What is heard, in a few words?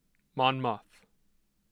monmouth pronounced muth; pronunciation guide